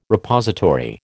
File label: "Repository", American